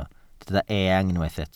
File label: "eang", welsh